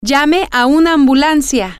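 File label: "call an ambulance", Spanish, female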